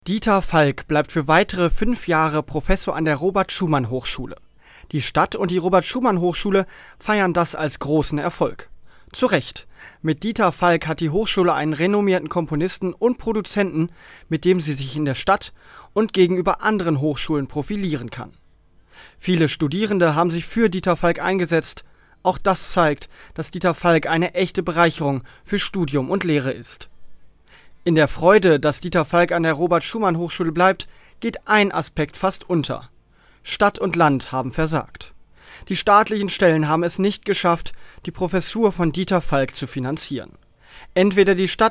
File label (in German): Kommentar; Dieter Falk